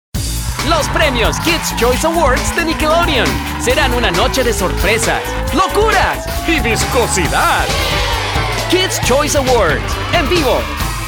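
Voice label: Promo, Spanish voice-over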